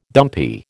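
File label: "dumpy", American English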